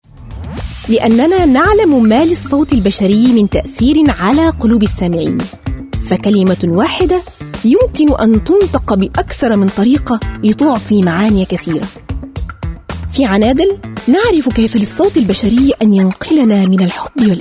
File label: arabic voice over female narrator